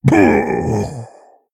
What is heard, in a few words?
Male Tauren Death